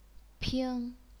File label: "ping1", chinese pinyin